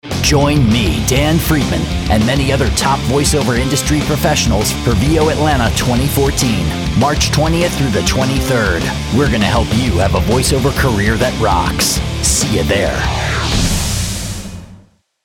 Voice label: promo